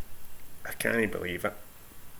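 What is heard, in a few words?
"cannae", Scottish slang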